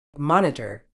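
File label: "monitor", British English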